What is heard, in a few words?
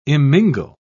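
"immingle", British English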